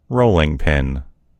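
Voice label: "rolling pin", American English; gcompris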